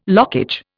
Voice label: "lockage", Indian

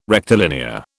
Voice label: "rectilinear", British

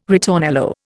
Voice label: "ritornello", Indian

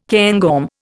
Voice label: "cairngorm", Indian

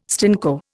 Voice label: "stinko", Indian

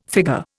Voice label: "figure", Indian

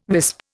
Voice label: "wisp", Indian

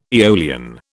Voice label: "aeolian", British

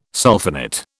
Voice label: "sulphonate", British

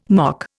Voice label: "mock", Indian

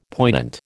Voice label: "poignant", American

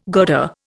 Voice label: "girder", Indian

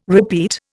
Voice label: "repeat", Indian

